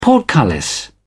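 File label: "portcullis", British English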